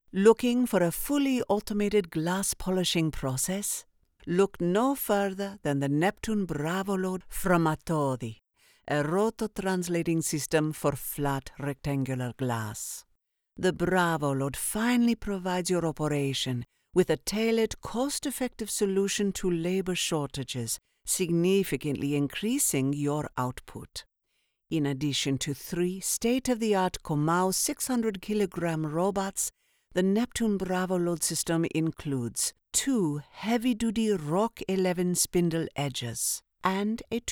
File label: B2B Italian accent technical explainer, Narration